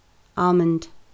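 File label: "almond", British, female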